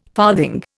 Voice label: "farthing", Indian